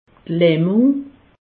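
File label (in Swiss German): "lahmung", Alsatian; medecine; paralysie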